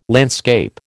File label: "landscape", American